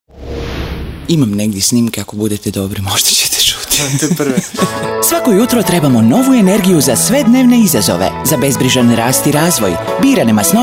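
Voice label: croatian female commercial, voice; voice demos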